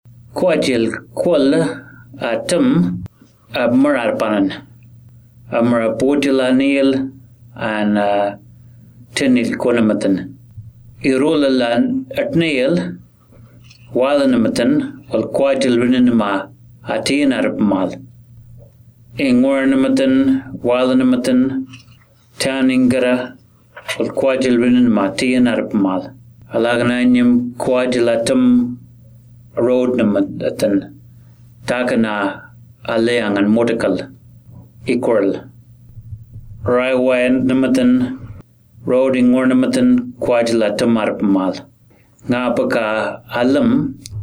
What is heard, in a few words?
Alyawarr floods message; tropical cyclone esther february 2020; NTFRES